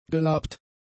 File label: "gelabt", German; adjectives